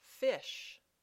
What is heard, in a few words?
"fish", English